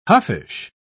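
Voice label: "huffish", English, uk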